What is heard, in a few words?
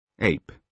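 "ape", British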